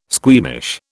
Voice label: "squeamish", British

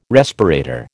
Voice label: "respirator", American

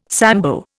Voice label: "Sambo", Indian

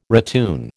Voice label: "ratoon", American

